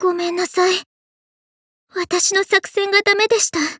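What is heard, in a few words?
Fail, Japanese; Liushih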